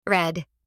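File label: "red", voice; matching game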